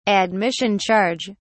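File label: "admission charge", British English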